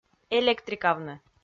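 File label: "электрикавны", Komi, female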